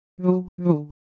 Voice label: Ewe Motion, Ideo Phones